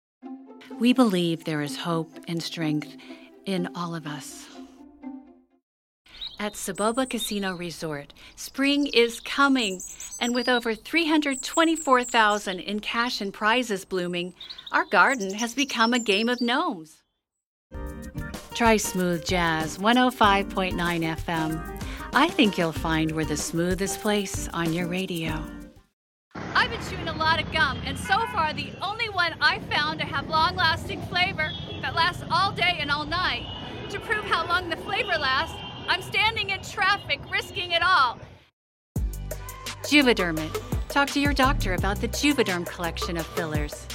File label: Commercial, Female voices